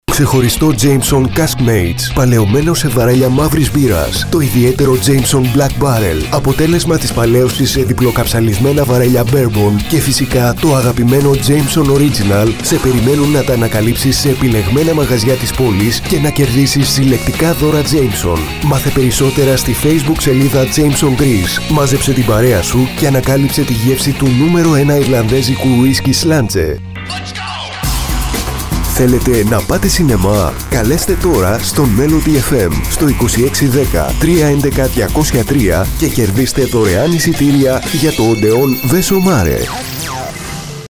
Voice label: Greek